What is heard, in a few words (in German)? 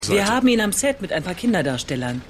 Feuilleton Redakteurin; The Wire